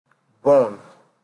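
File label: "born", Nigerian Pidgin, male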